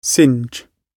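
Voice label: "singe", British English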